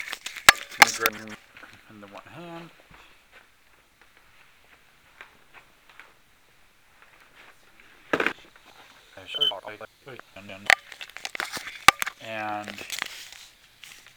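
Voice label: talking normal and then something odd; Undisclosed Location in Swartz Creek Mi; EVP; Spirit Chasers of the Unknown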